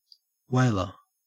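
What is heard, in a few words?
"whaler" English, Australian; pronunciations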